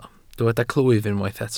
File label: "clwyf", Welsh